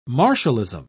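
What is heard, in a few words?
"martialism", British English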